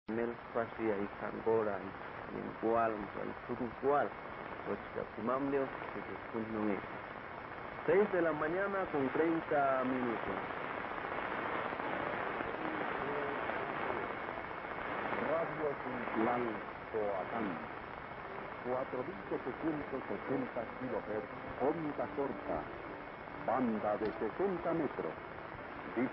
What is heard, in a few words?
San Sebastian Coatan 4780 kHz, Guatemala; Radio Cultural Coatan